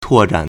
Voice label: "tuo4zhan3", Chinese